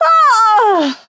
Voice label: Witch Death